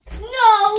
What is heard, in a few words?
child saying no